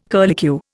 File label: "curlicue", Indian